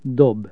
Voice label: throw, hungarian Consonants

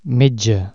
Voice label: doctor, Catalan Consonants

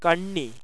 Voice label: retroflex nasal, malayalam

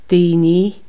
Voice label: people, Irish, Consonants

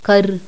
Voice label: unclear rhotic, toda

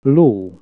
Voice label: horse, hungarian Consonants

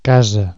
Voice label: house, Catalan Consonants

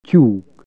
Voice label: hen, hungarian Consonants

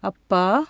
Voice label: father, tamil; JIPA

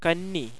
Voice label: alveolar nasal, malayalam

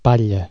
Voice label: "straw", Catalan

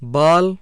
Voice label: hindi

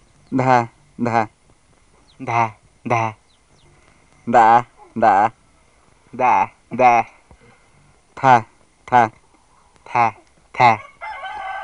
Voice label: word list horse buttocks seed, mazatec

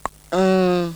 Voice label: alveolar, xoo place

